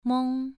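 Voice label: "meng", tone 2, pronunciation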